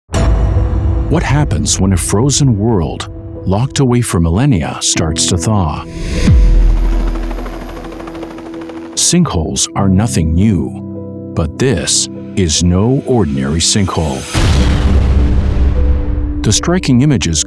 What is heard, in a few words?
VO Broadcast